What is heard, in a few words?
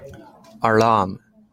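"alarm", JPN, male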